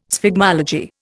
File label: "sphygmology", Indian